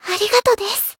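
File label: healed, Japanese; yuna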